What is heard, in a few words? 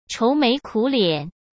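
"愁眉苦脸", Mandarin Chinese; hsk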